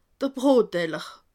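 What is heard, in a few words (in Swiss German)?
"brot", Alsatian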